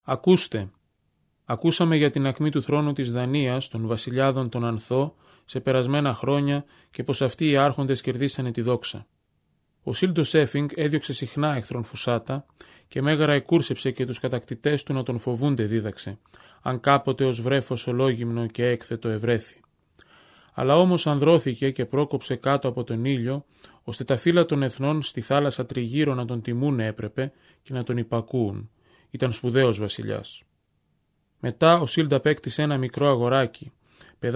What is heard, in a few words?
greek